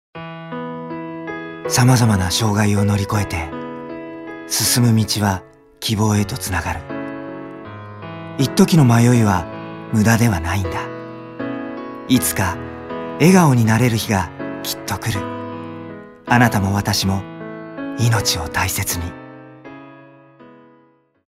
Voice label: narration